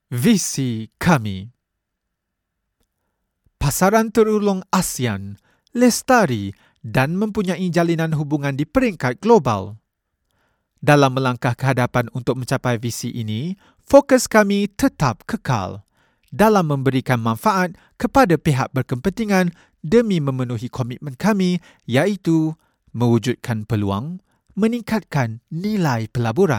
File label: Malay M Corporate, malaysian